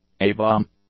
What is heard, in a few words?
"A-bomb", English